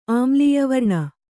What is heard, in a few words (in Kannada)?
"ಆಮ್ಲೀಯವರ್ಣ", Kannada